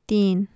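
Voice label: "tin", Urdu, three, female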